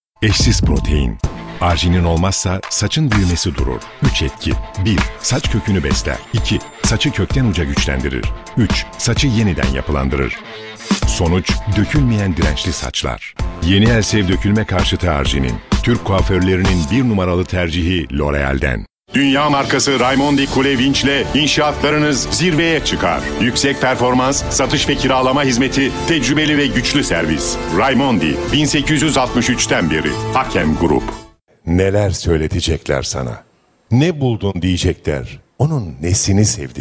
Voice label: turkce seslendirme, erkek sesler

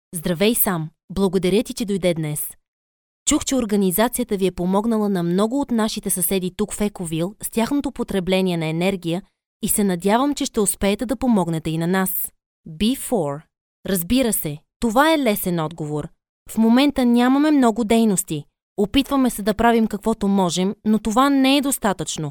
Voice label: Bulgarca Kadin, seslendirme

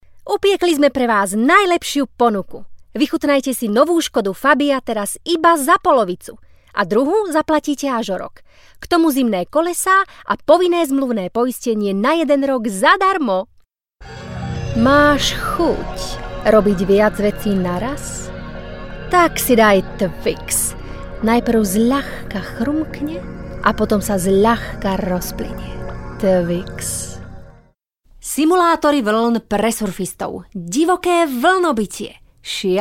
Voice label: Slovakca Kadin, seslendirme; kadin sesler

